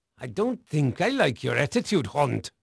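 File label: Dutch male, voice; Pirates Of The Caribbean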